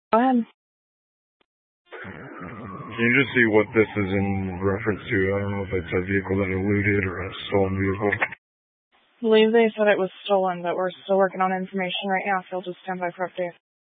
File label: Sheriff Dispatch, scanner; Mesa County